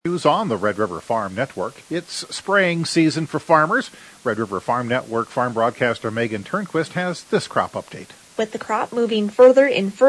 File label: air check; WinField United